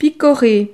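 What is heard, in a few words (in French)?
"picorer", French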